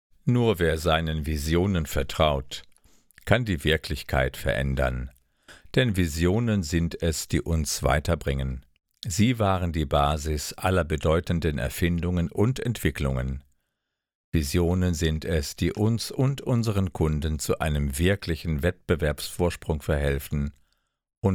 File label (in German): Werbetext; Visionen